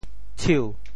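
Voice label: "tshiu2", Teochew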